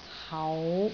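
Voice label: "hau5", Cantonese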